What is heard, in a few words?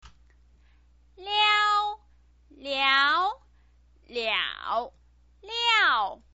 "liao", chinese pinyin